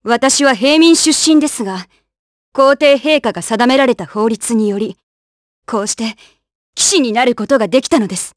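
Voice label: vox dialogue, voices, Japanese; Glenwys; kingsraid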